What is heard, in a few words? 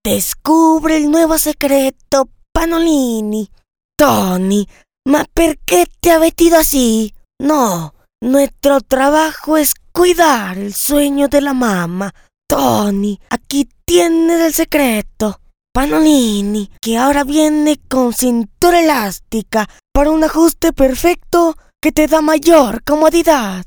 Character Cartoon